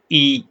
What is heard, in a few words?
short voyels arabic alphabet